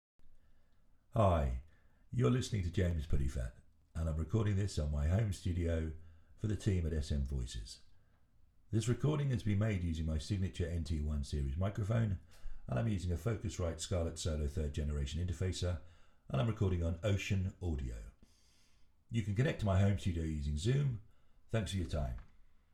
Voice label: English Neutral, Northern, Rich, Deep, Gravelly, Warm, Versatile